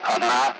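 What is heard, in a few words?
Speech, Russian; Allied Flight; Digits; DCS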